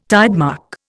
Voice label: "tidemark", Indian